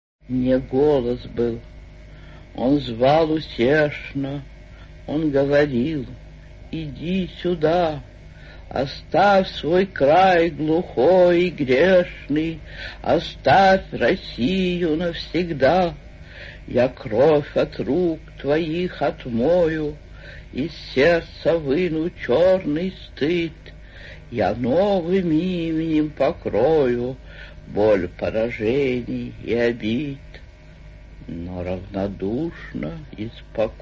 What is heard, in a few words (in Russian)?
zhivoj golos; A. Ahmatova